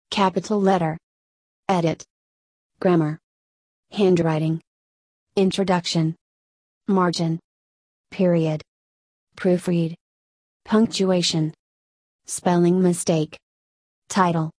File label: USA